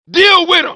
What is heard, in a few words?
"incoming", grunt